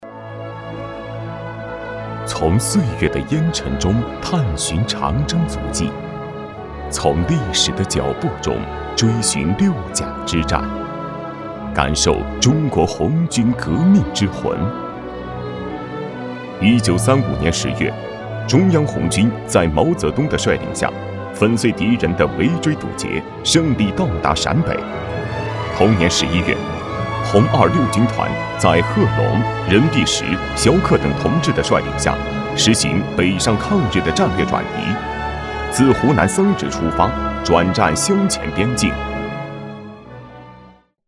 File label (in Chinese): 男 纪录片 深沉大气; 六甲之战